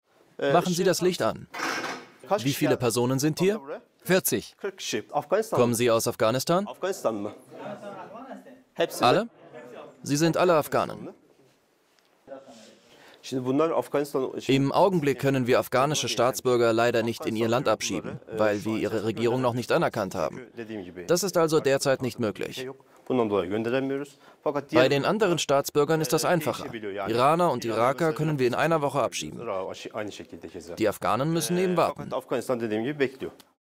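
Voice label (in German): Reportage Voiceover abgeklärt dialogisch; Grenzpolizist; ARTE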